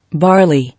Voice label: "Barley", English